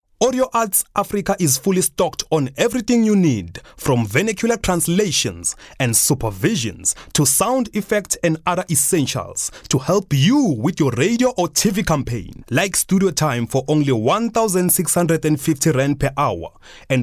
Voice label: English Retail